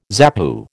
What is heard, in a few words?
"ZAPU", American